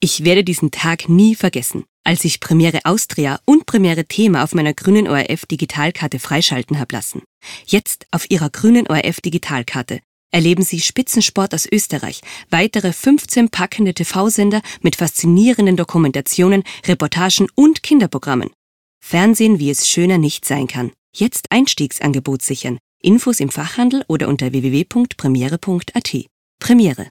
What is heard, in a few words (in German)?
Werbespot; Premiere